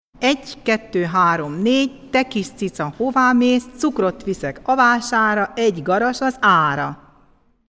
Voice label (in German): Ungarn Kinderreim